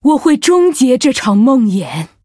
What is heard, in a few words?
Female Night Elf Picked; zh